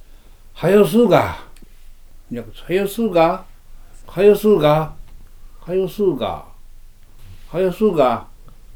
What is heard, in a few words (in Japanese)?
出水方言; wav形式のPCM音声です。録音は、T氏(1931年4月生まれ)にお願いしました。